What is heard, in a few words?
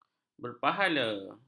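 "berpahala", Malay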